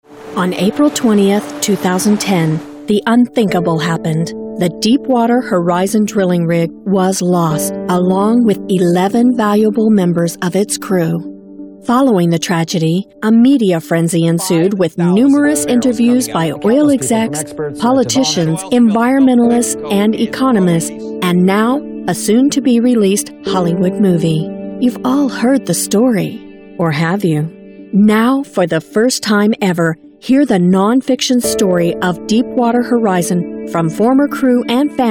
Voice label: Narration

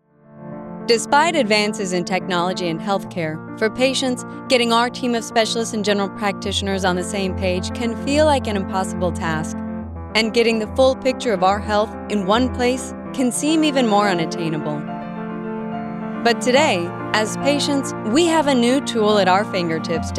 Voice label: Medical Narration; for PicnicHealth

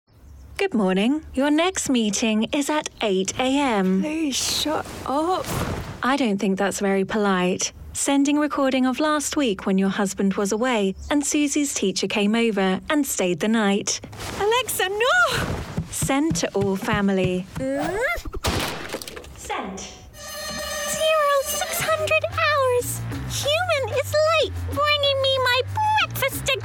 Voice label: ANIMATION REEL

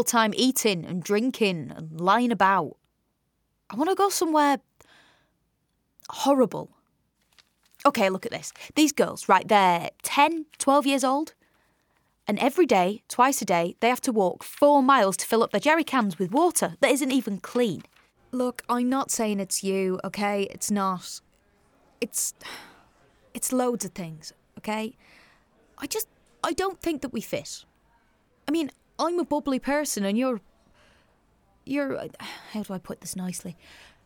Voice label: Acting

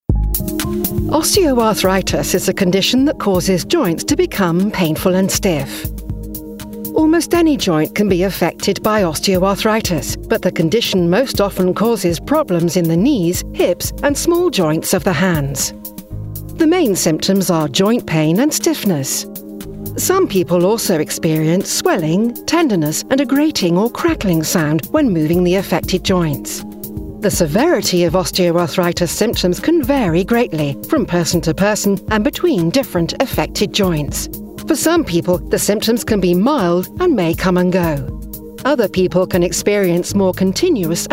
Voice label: CORPORATE; Osteoarthritis

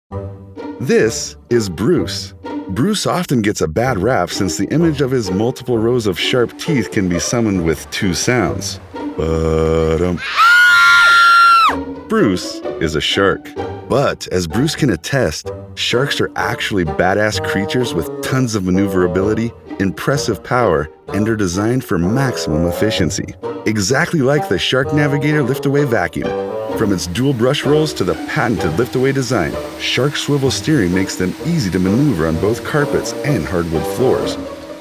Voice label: EXPLAINER

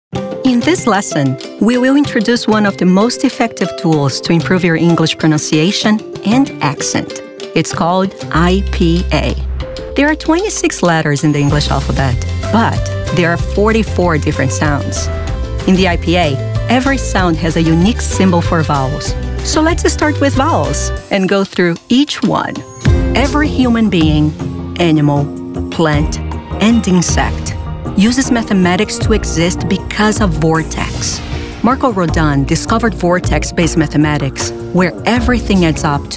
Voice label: Narration

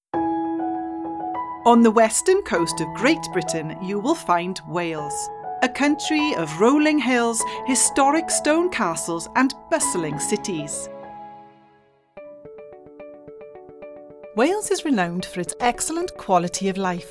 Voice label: Welsh Accent Voicereel

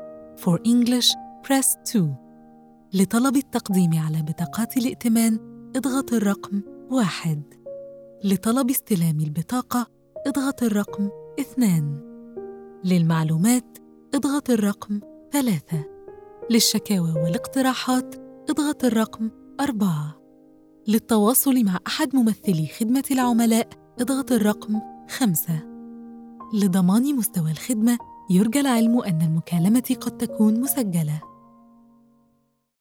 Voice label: Bank IVR Sample; ADC

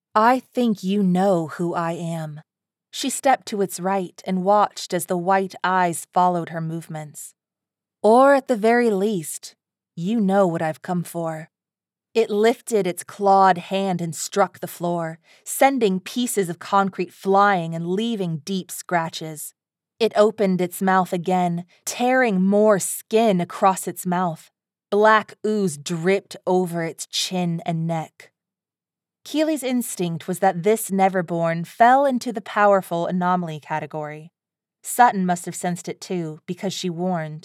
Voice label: Audiobook Sample; You Know Who I Am

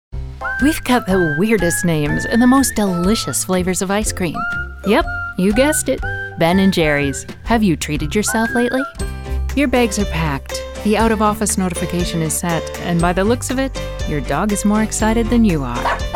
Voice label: commercial

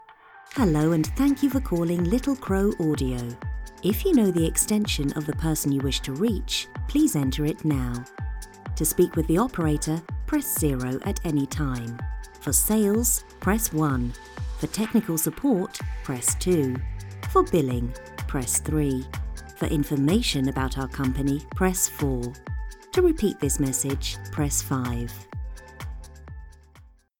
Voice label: IVR with music

